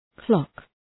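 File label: "clock", English; {klɒk}; Ουσιαστικό; ρολόι τοίχου; χρονόμετρο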